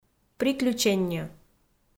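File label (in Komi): "приключенньӧ" Komi, female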